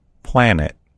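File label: "planet", American English; gcompris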